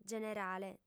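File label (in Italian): "generale", lingua sarda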